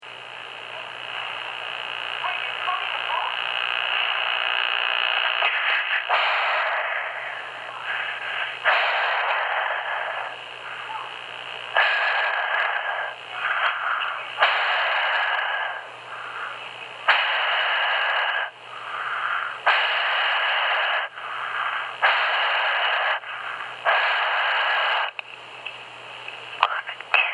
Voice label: Disturbing Call